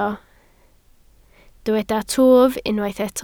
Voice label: "twf", Welsh